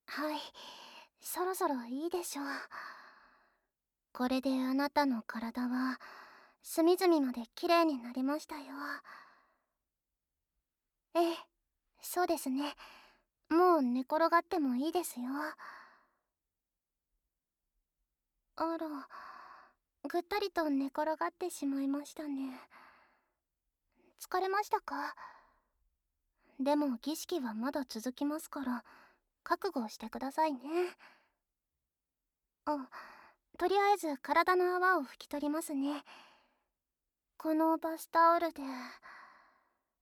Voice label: SE無し 性奉仕スタート まずは耳舐めでねっとり快楽責め, ASMR

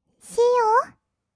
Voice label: シーユー, kawaii voice; ASMR; 挨拶; 1200 kawaii voice!!

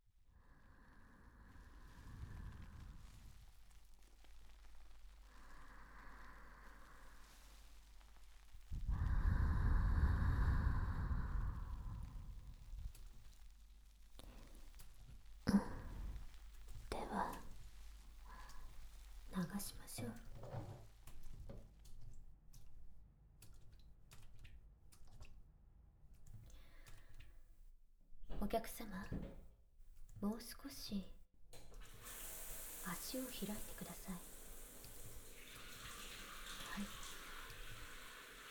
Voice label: 睾丸マッサージ, ASMR

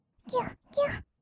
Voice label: 「ぎゅっ」, kawaii voice; 1200 kawaii voice!!; 色々なワンショット

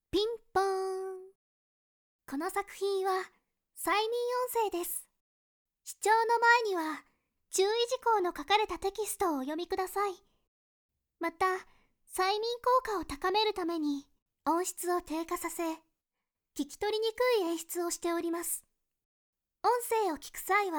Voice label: 共通アナウンス; ASMR

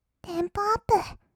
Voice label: "テンポアップ", kawaii voice; 音ゲー

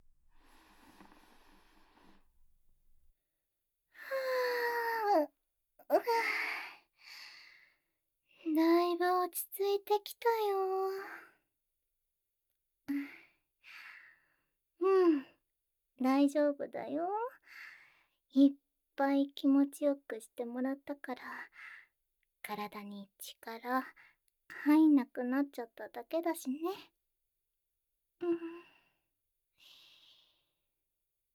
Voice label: ピロートーク; SEあり; ASMR

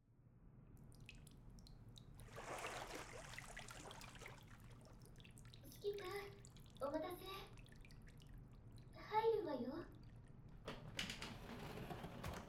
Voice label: 炭酸シャンプーなんてどうかしら, ASMR

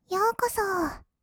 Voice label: "ようこそ", kawaii voice; ASMR; 色々なワンショット

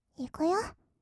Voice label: いくよ, kawaii voice; ASMR; 色々なワンショット

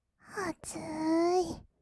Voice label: 「あつーい」, kawaii voice; 1200 kawaii voice!!; 色々なワンショット

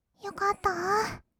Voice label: よかったー, kawaii voice; ASMR; 色々なワンショット; 1200 kawaii voice!!

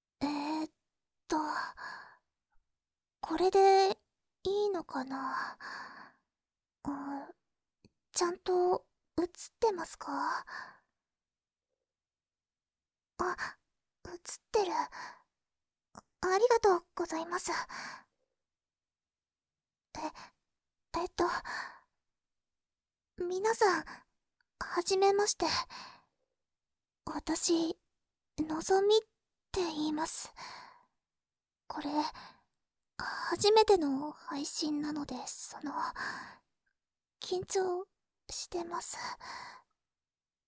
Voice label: 自己紹介 効果音なし, ASMR

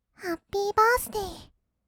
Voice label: "ハッピーバースデー", kawaii voice; 1200 kawaii voice!!; 色々なワンショット

